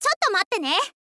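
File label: "cyotto matte ne", Japanese, girl; voice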